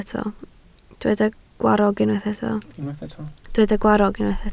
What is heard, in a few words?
"gwarrog", Welsh